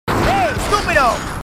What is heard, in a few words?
marines, aussie; h2dialogue